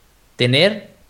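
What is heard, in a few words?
"tener", Spanish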